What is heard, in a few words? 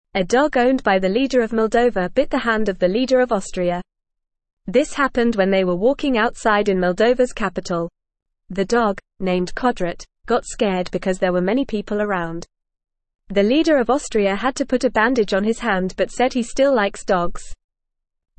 English Newsroom Lower Intermediate FAST Reading; Dog Bites Man Leaders Stay Friends